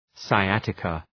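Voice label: "sciatica", English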